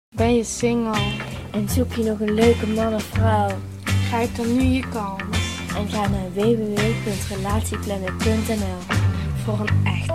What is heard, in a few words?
radiocommercial; Relatieplanet